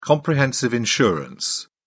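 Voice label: "comprehensive insurance", English, British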